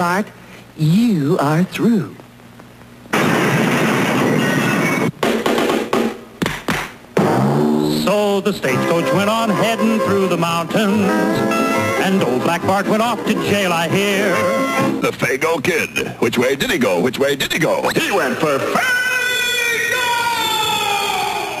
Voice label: Vintage Root Beer Commercial; Faygo